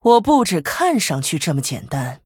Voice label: Female Human Picked, zh